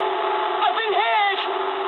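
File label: aircraft damaged mood low, speech English; War Thunder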